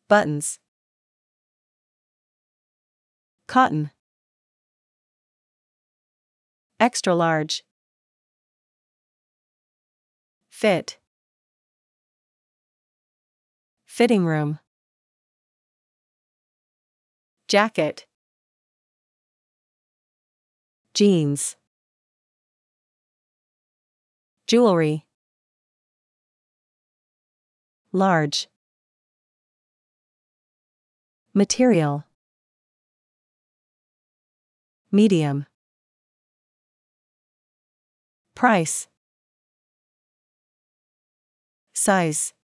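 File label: American; clothing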